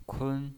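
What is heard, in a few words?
"kun", Mandarin Chinese, first tone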